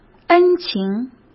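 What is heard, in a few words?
"恩情", Mandarin Chinese; hsk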